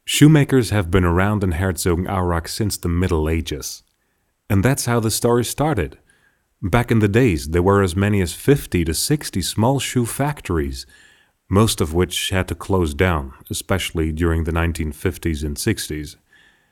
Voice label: voiceover infomercial en; Muttersprache Deutsch; puma; Sprecher in Berlin

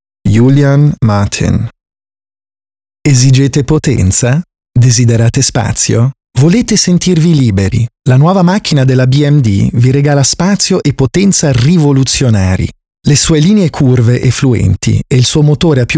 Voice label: it spot neut

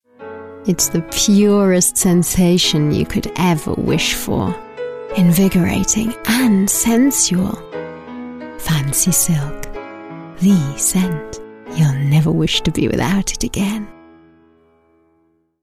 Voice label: voice artist radio commercial

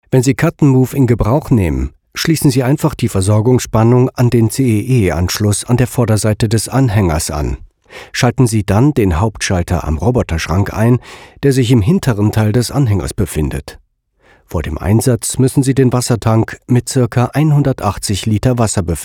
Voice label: workers elearning

